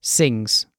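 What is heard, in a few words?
"sings", British English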